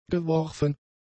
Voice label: "geworfen", German; adjectives

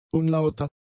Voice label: "unlauter", German; adjectives

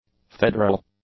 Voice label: "federal", english; adjectives

